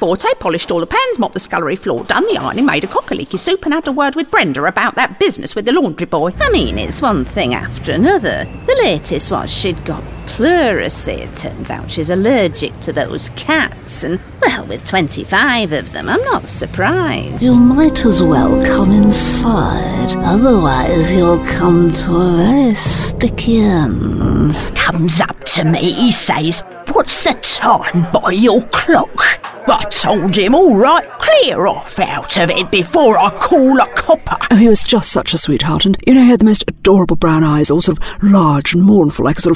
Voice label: english british animation